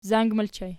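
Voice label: "zang" ergative plural, Archi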